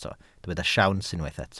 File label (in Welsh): "siawns", Welsh